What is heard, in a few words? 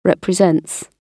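"represents", British English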